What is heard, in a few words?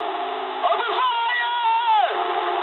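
English attack position mood high; War Thunder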